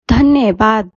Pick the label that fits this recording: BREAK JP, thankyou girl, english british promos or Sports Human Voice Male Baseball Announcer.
thankyou girl